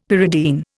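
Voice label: "pyridine", Indian English; Dictionary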